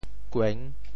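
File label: "kueng6", Teochew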